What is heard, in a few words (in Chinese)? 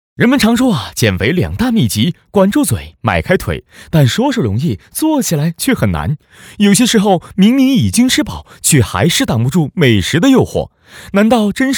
男 减肥（轻松诙谐）; 优质年轻; 咖咖减肥